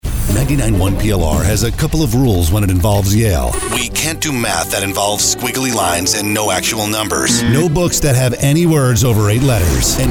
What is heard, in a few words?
Tailgate Promo; Yale